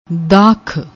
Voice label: "dakhh", Punjabi